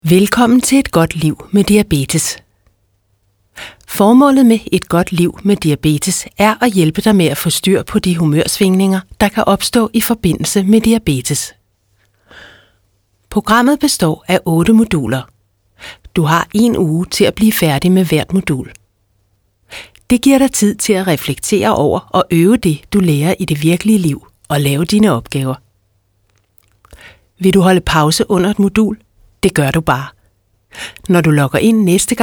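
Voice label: danish female ivr, voice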